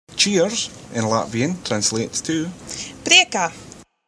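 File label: cheers, Doric, north east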